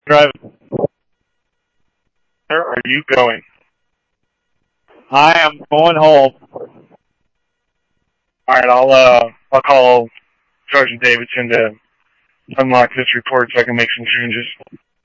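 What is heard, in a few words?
police department traffic, scanner audio trunk; SCTSDLE PD-Traff ScottsdalePolice; H-Scottsdale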